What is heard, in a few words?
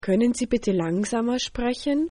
slowly, german